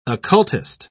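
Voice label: "occultist", British English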